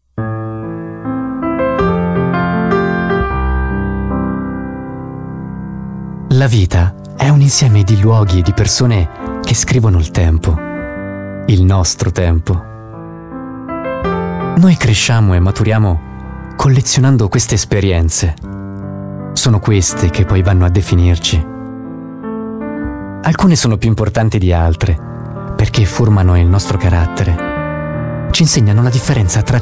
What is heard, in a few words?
italian voice over male commercial